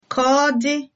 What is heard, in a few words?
goodbye, igbo